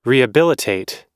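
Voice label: "rehabilitate", US English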